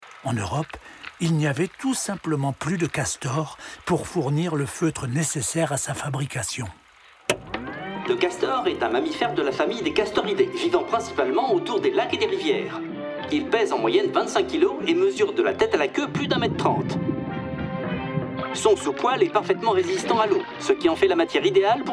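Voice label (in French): narration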